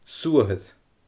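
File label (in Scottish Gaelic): "suathair", Scottish Gaelic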